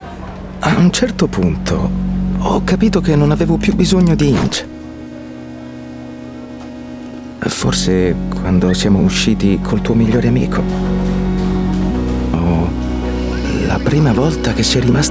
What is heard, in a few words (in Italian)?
spot naturale romantico; hinge